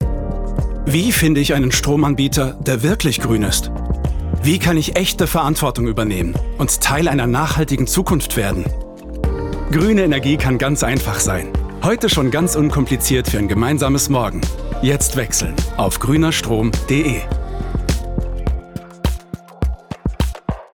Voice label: Commercial ENERGY